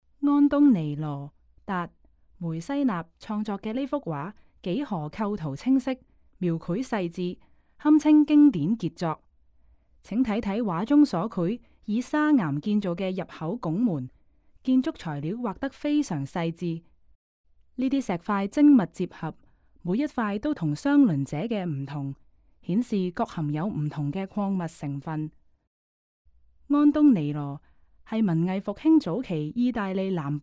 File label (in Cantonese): Canto; audio guide